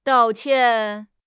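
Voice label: "dao4 qian4", Chinese; HSK4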